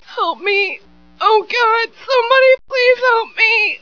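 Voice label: female help me; svencoop; theyrhungry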